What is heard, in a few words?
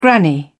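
"granny", English, British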